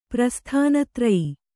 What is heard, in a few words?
"ಪ್ರಸ್ಥಾನತೂರ್ಯ", Kannada